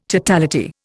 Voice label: "totality", Indian